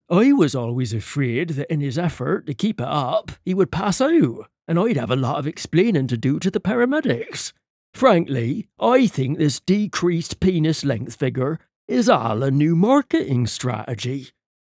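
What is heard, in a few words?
cornwall, accents dialects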